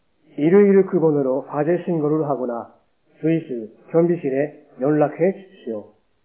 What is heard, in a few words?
korean